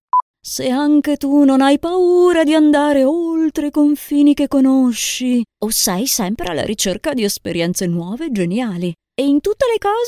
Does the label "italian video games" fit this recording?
Yes